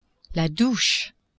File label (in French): "douche", French; maison